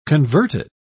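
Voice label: "converted", British English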